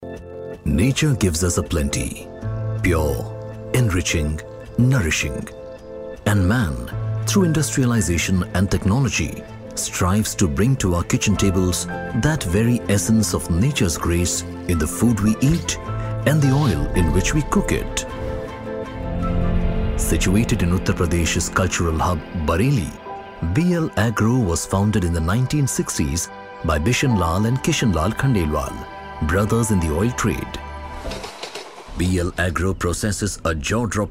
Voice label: English; B L Agro